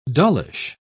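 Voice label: "dullish", British English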